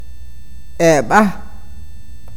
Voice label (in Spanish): "diente", awakateko